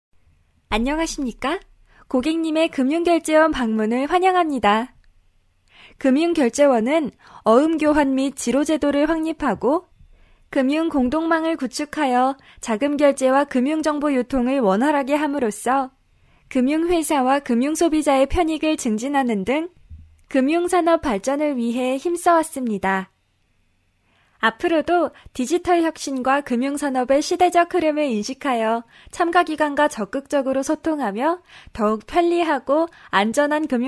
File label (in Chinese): 韩语女声-宣传介绍风格